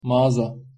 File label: pronunciation tr magaza